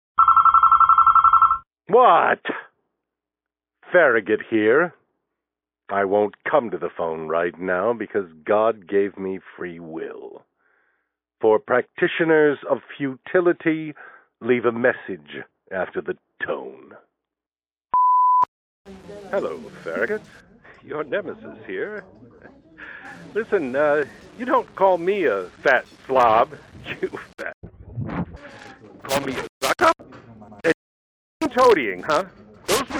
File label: gray voice over